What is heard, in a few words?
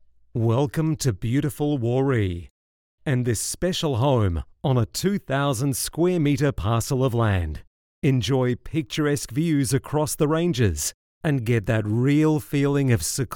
Classy Stylish